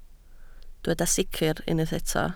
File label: "sicr", Welsh